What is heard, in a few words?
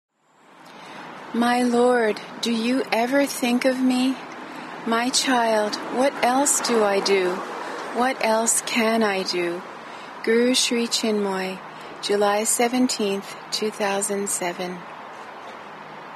poem